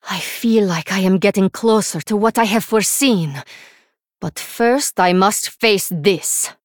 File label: Female Draenei Bark